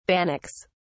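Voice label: "bannocks", British English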